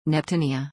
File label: "Neptunia", en-us